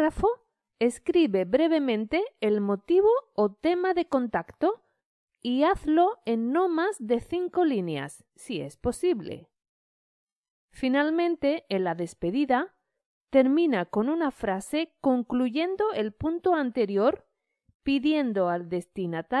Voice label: Spanish reader Como escribir un correo electronico formal